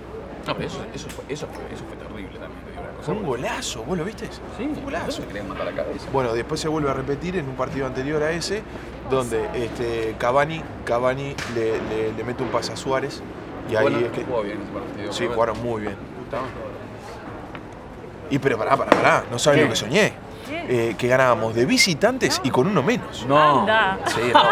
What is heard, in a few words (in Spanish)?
AMB INT BAR en ESPANOL Charla de futbol Uruguay risas brindis